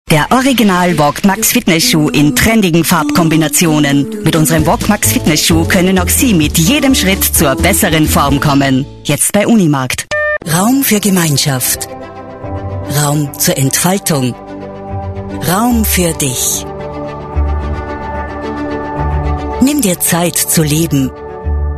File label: germany voice over female commercial